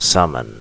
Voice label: "summon", word